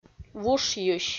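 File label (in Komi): "вушйысь", Komi-Zyrian, female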